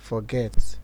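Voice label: "forget", Nigerian Pidgin, male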